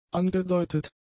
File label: "angedeutet", German; adjectives